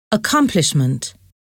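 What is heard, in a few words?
"accomplishment", English word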